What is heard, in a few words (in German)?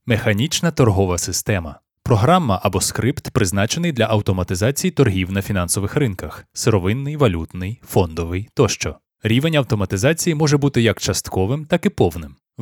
Sprachprobe E-Learning